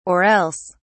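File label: "or else", British English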